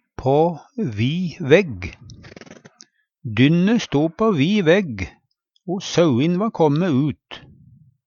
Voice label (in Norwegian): pa vi vegg, Numedalsmål